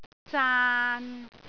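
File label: "zaan3", Cantonese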